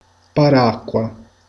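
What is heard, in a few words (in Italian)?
"ombrello", Italian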